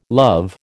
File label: "love", American